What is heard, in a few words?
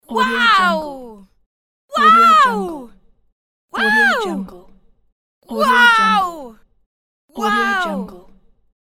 woman loud wow